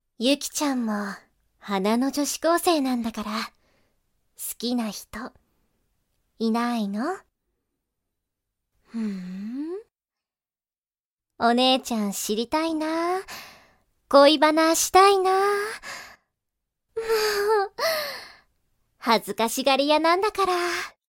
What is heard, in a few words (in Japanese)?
おっとりしたお姉さん 27歳